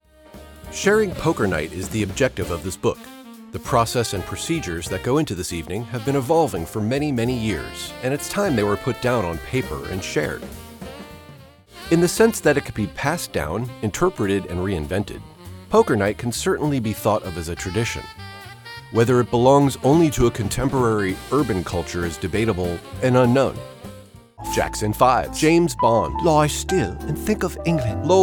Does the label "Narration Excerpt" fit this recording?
Yes